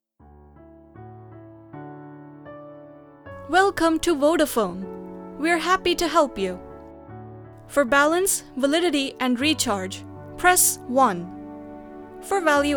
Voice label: IVR English